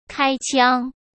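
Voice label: "开枪", Mandarin Chinese; chinese-flashcards; hsk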